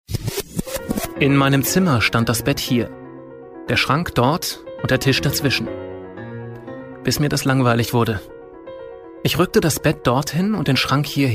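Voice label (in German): Hörbuch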